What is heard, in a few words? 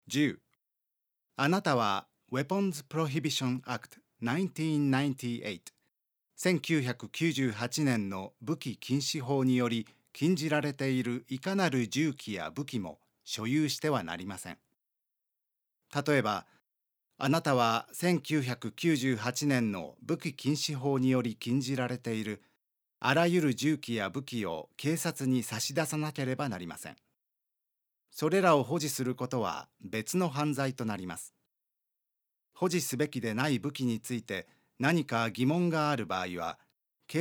japanese; Orders About Weapons